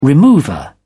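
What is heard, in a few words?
"remover", English, British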